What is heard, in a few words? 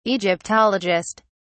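"egyptologist", British English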